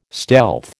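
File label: "stealth", American English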